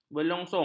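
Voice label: "belongsong", Malay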